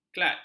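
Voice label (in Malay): "klac", Malay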